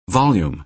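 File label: "volume", American English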